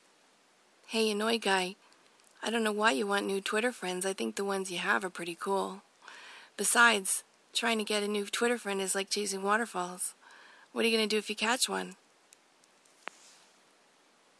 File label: annoyed guy